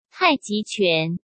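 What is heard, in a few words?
"太极拳" Mandarin Chinese; hsk; chinese-flashcards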